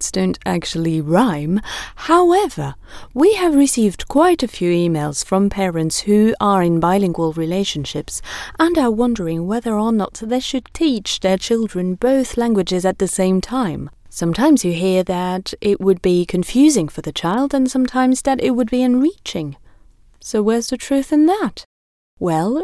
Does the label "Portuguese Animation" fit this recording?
No